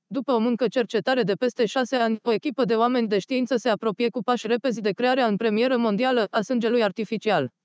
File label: adapted fem